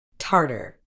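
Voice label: "tartar", British English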